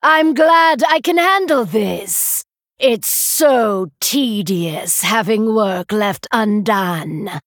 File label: Female Night Elf; Lady Anacondra